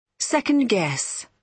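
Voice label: "second guess", British English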